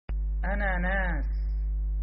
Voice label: Pineapple in arabic